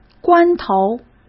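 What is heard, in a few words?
"关头", Mandarin Chinese; chinese flashcards; hsk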